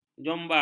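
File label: "jombang", Malay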